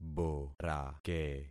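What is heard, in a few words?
"borake", concatenated syllables; Affixing experiment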